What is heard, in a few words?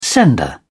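"sender", English, British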